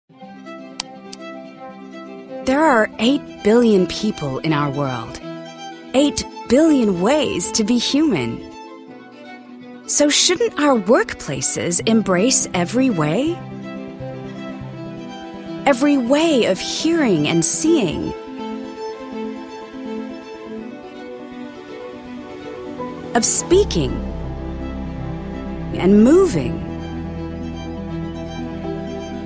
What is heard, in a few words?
US ACCENT